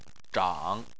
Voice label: "zhang3", Chinese

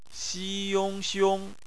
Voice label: "xiong", Chinese; BPMF spelling

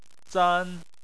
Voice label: "zan1", Chinese

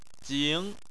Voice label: "jing2", Chinese

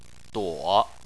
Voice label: "duo3", Chinese

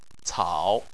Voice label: "cao3", chinese

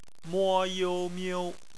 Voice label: "miu", Chinese; phonetics

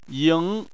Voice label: "ying2", Chinese

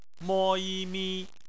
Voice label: "mi", Chinese; phonetics

